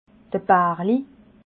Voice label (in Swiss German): "barli", alsace